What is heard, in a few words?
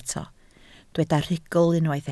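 "rhugl" Welsh, spoken word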